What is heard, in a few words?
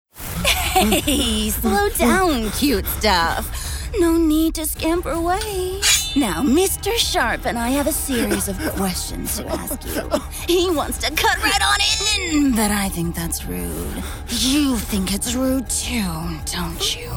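English, Australian, female, video game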